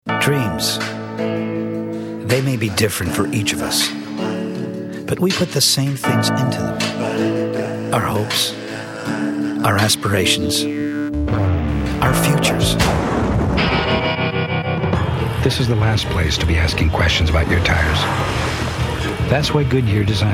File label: commercial male